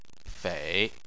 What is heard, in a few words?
"fei3", Chinese